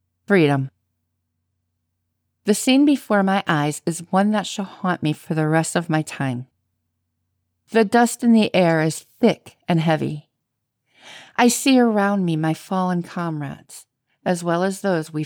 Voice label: Non Fiction Poetry Audiobook; Boston area; home studio